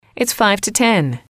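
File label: its five to ten, female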